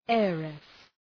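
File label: "heiress", English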